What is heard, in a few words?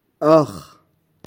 "Akh", Touareg; Latte